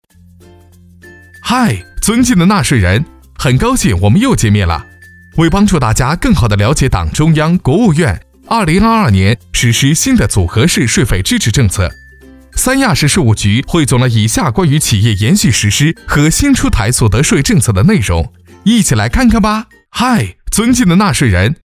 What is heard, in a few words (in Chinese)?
MG 轻松